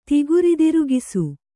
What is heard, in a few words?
"ತಿಗುರಿದಿರುಗಿಸು", Kannada; tiguridirugisu